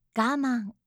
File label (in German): "gaman", japanische maedchennamen